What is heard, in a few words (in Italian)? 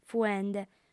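"fuende", lingua sarda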